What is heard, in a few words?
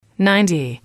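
"ninety", English, female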